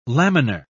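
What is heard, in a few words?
"laminar", British English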